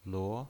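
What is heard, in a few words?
"luo", pinyin, Mandarin Chinese, second tone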